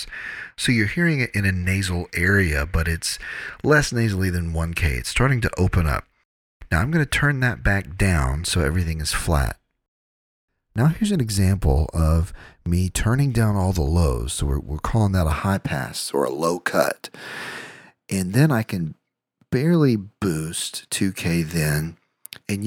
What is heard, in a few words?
2 khz in dialogue